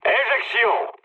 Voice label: bailout mood low, speech fr; War Thunder